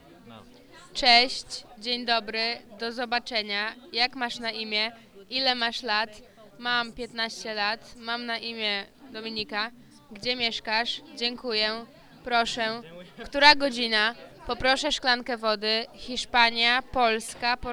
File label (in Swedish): polska; COMENIUS